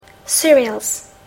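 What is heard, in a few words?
"cereals", English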